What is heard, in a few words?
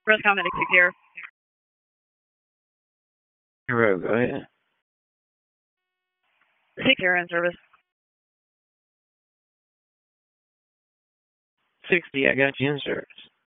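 EMS Dispatch; wake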